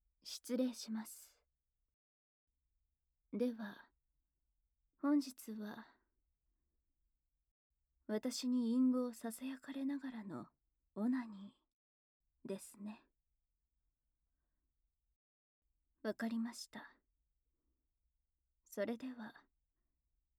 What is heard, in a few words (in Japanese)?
ひたすら淫語を囁いて; オナニー